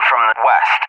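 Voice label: "from the west", English, USA; DCS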